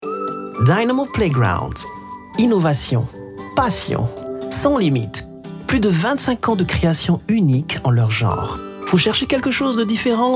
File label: FRENCH MALE SAMPLE; DYNAMO LANDMARK PLAYGROUNDS